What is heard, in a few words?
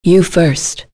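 Vox Skill, voices heroes English; kingsraid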